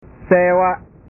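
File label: "sewa", Indonesian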